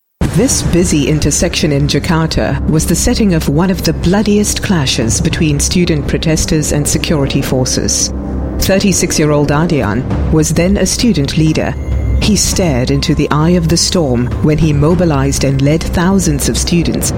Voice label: Asian English Documentary